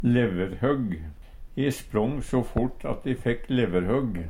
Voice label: "leverhøgg", Numedalsmål; Substantiv inkjekjønn

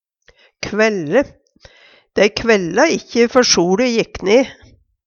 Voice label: kvaelle, Numedalsmål

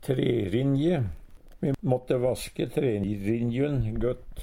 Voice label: "trerinje", Numedalsmål

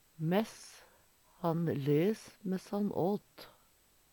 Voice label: "mess", Numedalsmål; medan; Subjunksjon

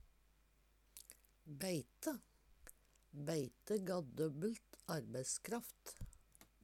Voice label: beite, Numedalsmål; Beite ga døbbel arbeisskraft.

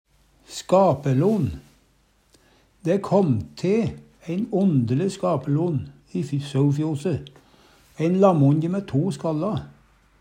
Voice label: "skapelon", Numedalsmål

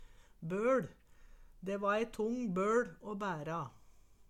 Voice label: "bøL", Numedalsmål

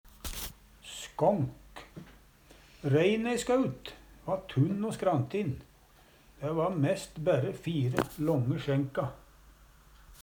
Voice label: "skånk", Numedalsmål; del av baklemmer på dyr og mennesker

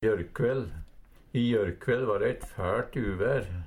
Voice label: i jorkvaell, Numedalsmål; gårkveld; Adverb

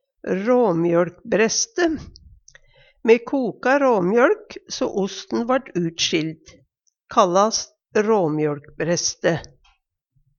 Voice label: ramjoLkbreste, Numedalsmål; Me koka råmjøLk so osten vart utsjild, kallas råmjøLkbrøste; Hushald, mat, drikke

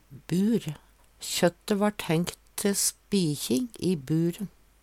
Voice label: "bur", Numedalsmål

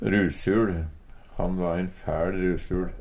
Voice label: rusuL, Numedalsmål

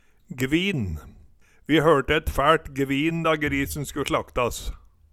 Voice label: gvin, Numedalsmål; Vi hørte et fæLt gvin da grisen sku slaktas

